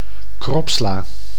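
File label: "kropsla", Dutch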